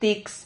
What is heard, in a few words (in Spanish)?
"tics", Silabas